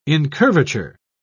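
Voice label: "incurvature", British English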